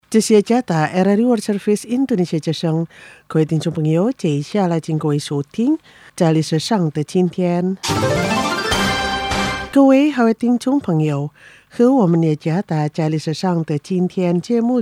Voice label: paket audio mandarin